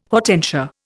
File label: "hortensia", Indian